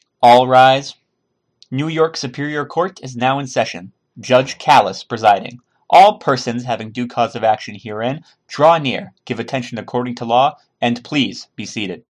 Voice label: voiceover

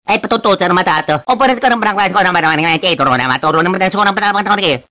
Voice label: speaking gibberish; luigi

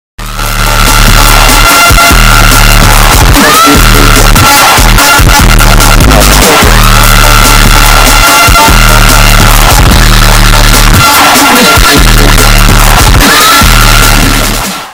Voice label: ad bass boosted; google

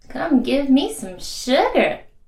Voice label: give me some sugar, female

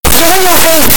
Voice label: distorted bubble saying yoylecake

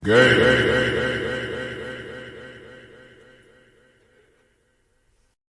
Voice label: echo voice

